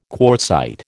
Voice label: "quartzite", American